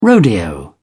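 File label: "rodeo", British English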